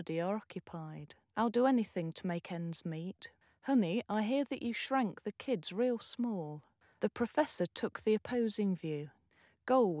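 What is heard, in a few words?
female, instantaneous; SiSEC11; underdetermined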